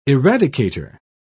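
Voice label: "eradicator", British English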